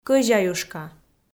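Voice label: "кӧзяюшка", Komi-Zyrian, female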